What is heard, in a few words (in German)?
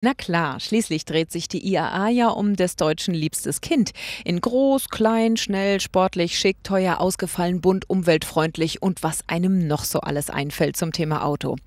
Reportage